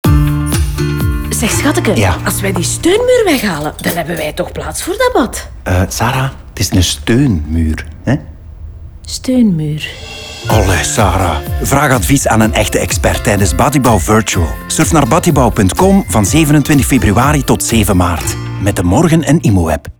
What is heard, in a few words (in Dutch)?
Radio NL Spot; Batibouw; DeMorgen